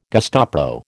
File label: "Gestapo", American